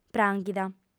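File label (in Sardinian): "prànghida", female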